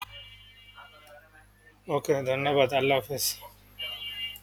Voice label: voice message